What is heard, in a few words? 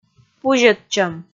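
"пузьӧдчӧм", Komi, female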